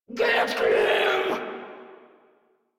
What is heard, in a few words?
Player Spotted Grineer Female, Barks, Battle; Warframe